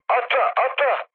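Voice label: Turkish bailout, mood low, speech; War Thunder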